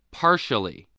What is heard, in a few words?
"partially", English, voice